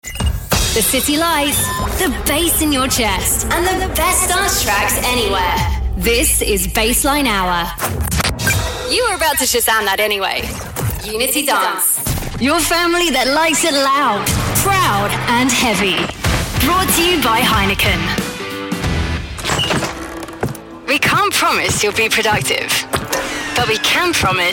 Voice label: radio imaging

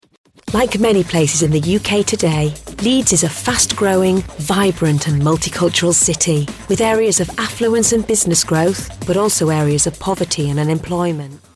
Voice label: natural

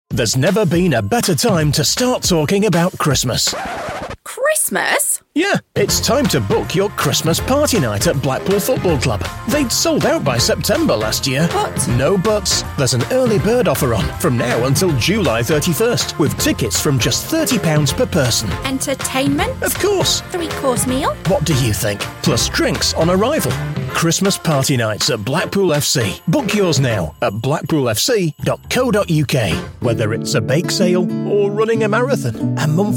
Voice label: commercial

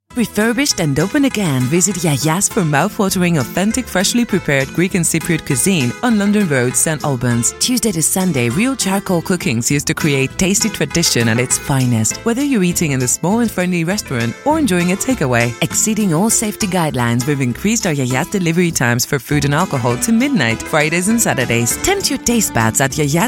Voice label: European voiceover commercial

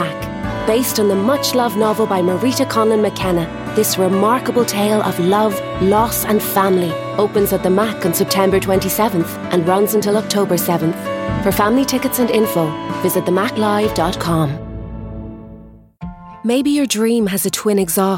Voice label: irish voiceover commercial

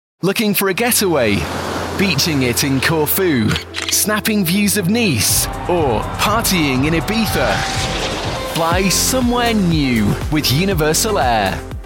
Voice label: adverts, Engaging Inspired Confident Voiceover

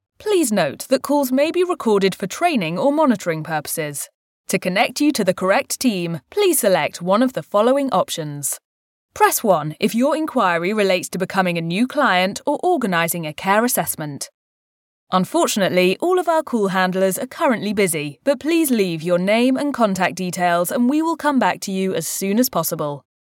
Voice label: telephone

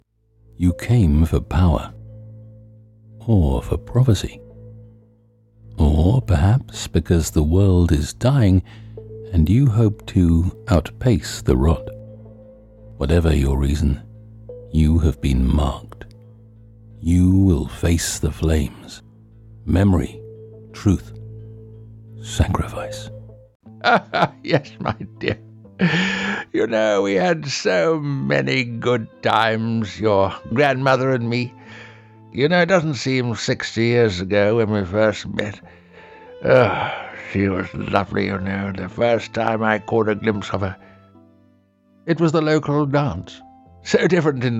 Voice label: animation

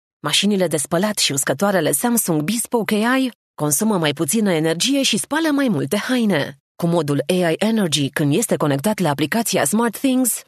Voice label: romanian commercial

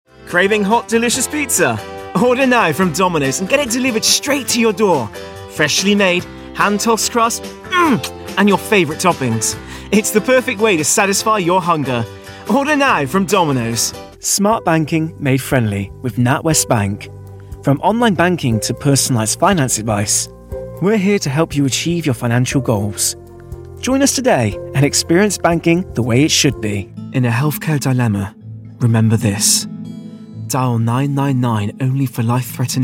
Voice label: westcountry bristol voice corporate

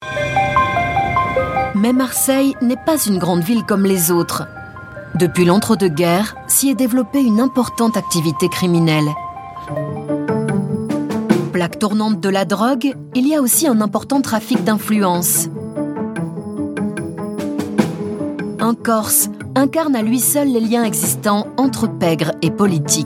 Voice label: french voice documentary

